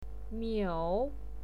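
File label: "miu", Mandarin Chinese, third tone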